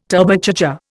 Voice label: "Turbocharger", Indian

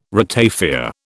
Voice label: "ratafia", British

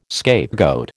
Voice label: "scapegoat", American

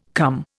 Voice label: "come", Indian

